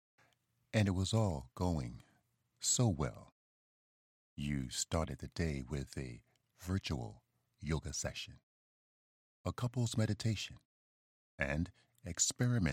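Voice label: American English Narrative; GoLocalise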